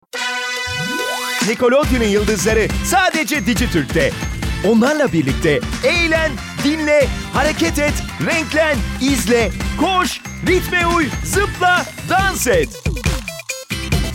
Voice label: turkish m commercial, Turkish voice; voice demos